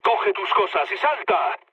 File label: bailout, mood low, speech; War Thunder